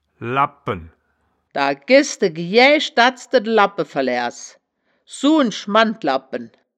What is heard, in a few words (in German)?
"labpen", wittlich